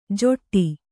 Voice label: "ಜೊಟ್ಟಿ", Kannada